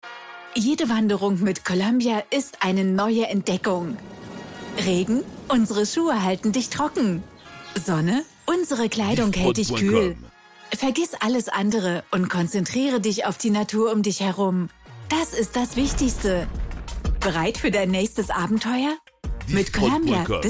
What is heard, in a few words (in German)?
Spotify DE, publicite; Columbia Printemps 2025